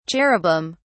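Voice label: "cherubim", British English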